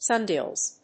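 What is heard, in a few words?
"sun-dials", English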